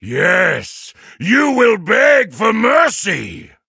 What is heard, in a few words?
battle en